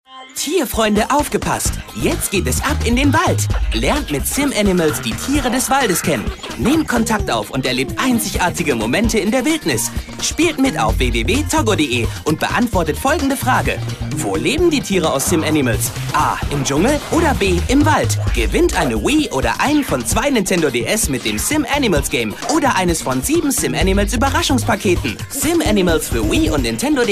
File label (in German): Werbung Freundlich